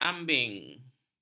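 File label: "ambin", Malay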